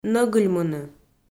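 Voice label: "нӧгыльмыны" Komi-Zyrian, female; kpv audio dict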